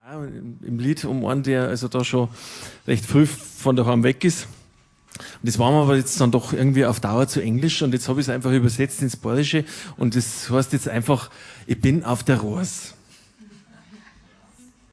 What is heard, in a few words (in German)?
Ansage